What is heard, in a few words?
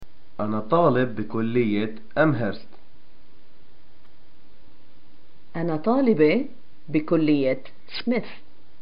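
I am a student, Palestinian arabic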